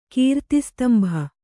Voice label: "ಕೀರ್ತಿಸ್ತಂಭ", Kannada